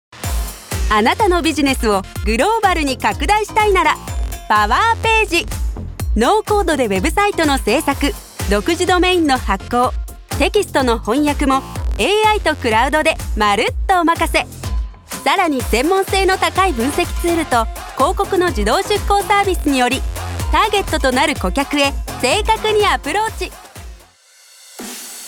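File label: Commercial Upbeat Bold, Voice Over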